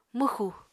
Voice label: "mwxu", Apatani